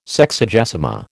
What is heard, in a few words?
"Sexagesima", American